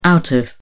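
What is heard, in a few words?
"out of", British English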